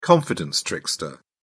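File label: "confidence trickster", British English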